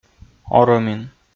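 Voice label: "орӧмин", Komi, male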